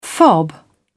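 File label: "fob", British English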